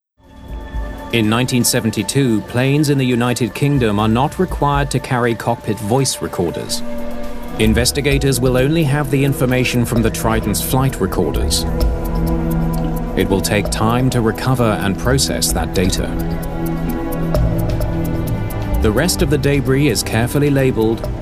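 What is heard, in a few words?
narration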